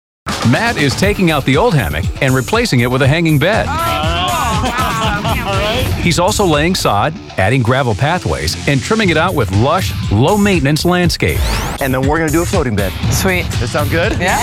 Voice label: TV Narration

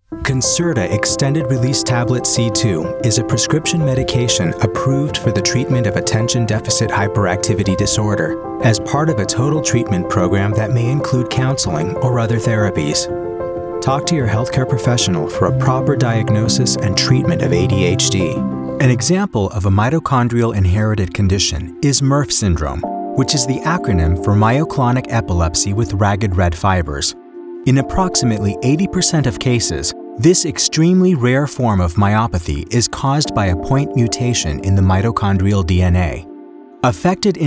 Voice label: medical narration

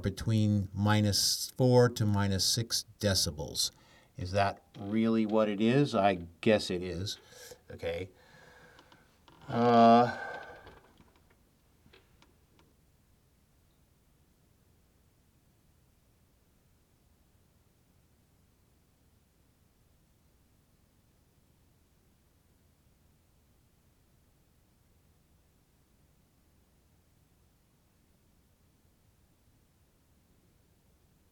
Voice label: Recording Studio Test

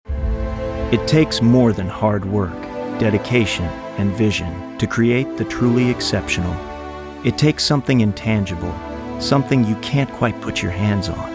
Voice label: voiceover

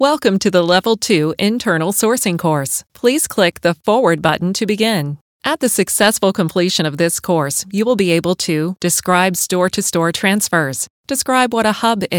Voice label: E LEARNING

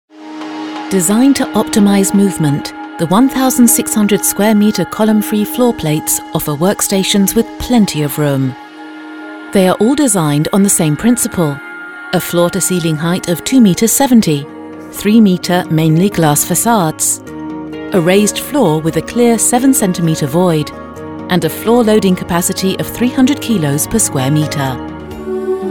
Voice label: corporate

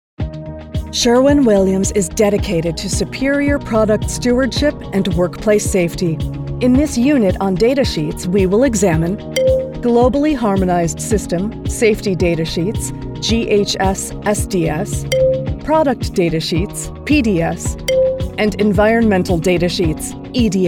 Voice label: E LEARN

